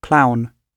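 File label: "clown" British English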